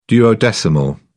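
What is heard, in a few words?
"duodecimal", British English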